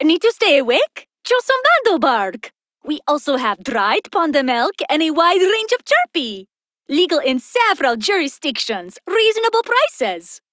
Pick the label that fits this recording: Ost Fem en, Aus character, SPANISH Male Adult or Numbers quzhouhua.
Ost Fem en